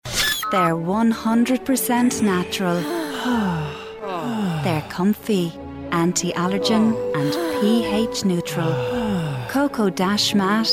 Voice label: Commercial